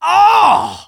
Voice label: Scout auto dejected tie

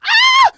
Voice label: scout scared

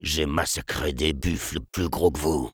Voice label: sniper taunts, fr

